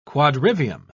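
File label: "quadrivium", English, British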